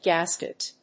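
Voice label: "gasket", English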